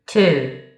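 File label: "Two", spoken number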